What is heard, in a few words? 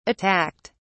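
"attacked", English, British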